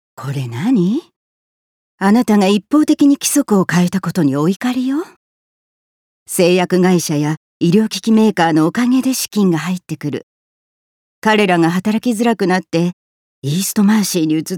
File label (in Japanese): 病院スタッフ40代, 福岡･宮崎弁